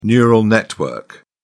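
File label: "neural network", British English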